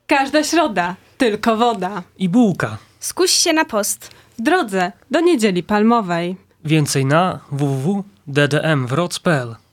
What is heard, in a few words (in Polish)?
spot; sucha bulka